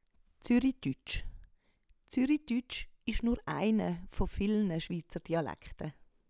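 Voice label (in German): Zürituetsch; Wortschatz Kapitel 0; Hauptwortschatz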